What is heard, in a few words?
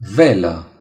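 "sail", Romanian; gcompris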